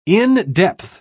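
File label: "in-depth", British English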